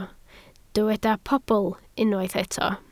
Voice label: "pobl", welsh